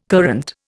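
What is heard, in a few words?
"courante", Indian English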